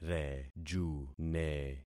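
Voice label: "rejune", concatenated syllables; Affixing experiment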